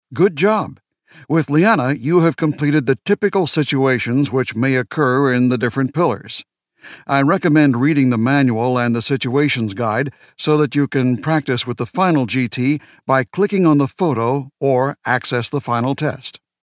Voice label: us elearning